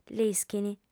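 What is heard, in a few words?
"l'ischint", Sardinian, female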